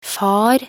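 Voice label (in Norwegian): "far", Norwegian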